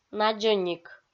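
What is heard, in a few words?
"надзӧник", Komi, female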